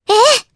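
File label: Vox Aff Japanese; Mirianne; kingsraid; jp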